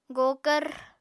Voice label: "gokvr", Apatani